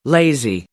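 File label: "lazy", English; adjective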